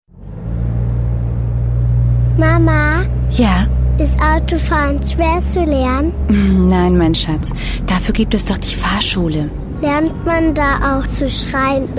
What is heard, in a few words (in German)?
Junge Mutter